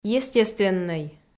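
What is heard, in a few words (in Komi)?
"естественнӧй", Komi, female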